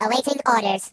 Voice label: "Yes sir", Robot voices; hedgewars